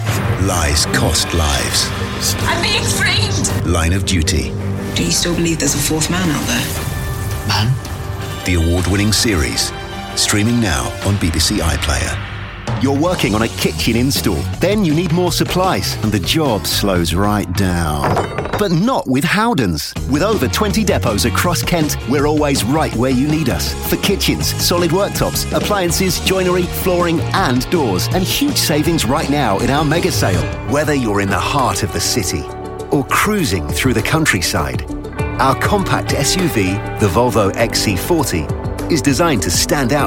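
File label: commercial, Engaging Upbeat Friendly Voice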